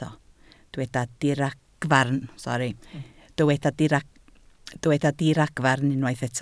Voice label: "diragfarn", Welsh

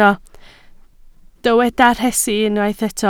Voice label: "rhesi", Welsh

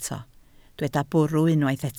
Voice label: "bwrw", Welsh

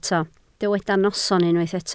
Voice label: "noson", Welsh

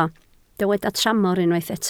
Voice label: "tramor", Welsh

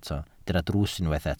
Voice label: "drws", Welsh

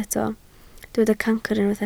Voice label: "cancr", Welsh

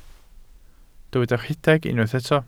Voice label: "rhedeg", Welsh

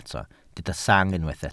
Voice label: "sang", Welsh

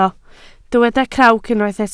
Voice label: "crawc", Welsh

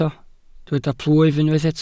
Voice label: "plwyf", Welsh

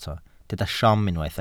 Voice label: "siom", Welsh

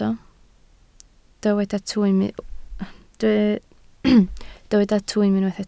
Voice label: "twym", Welsh

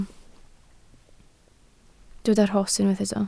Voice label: "rhos", Welsh

